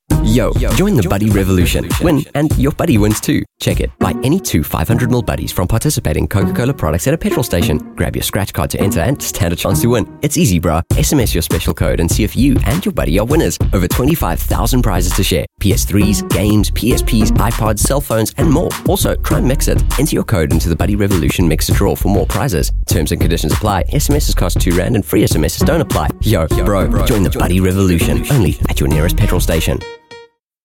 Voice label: Ad; Buddy Revolution